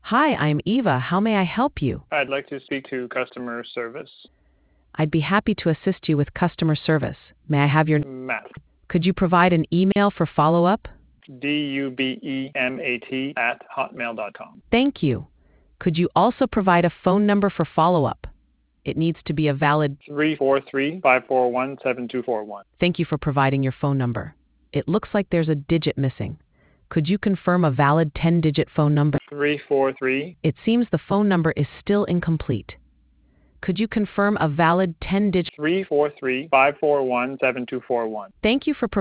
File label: conversation